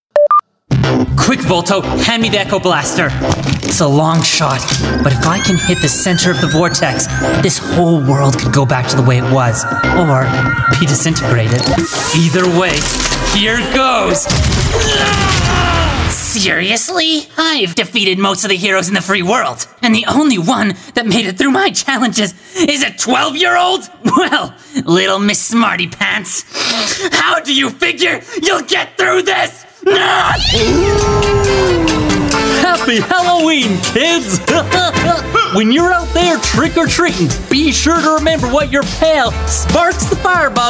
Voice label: ENGLISH AMERICAN CHARACTER